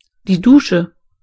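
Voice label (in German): "shower", German; gcompris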